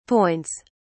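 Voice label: "points", British English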